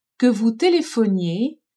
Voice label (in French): "que vous telephoniez", french; telephoner